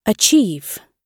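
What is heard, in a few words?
"achieve", British English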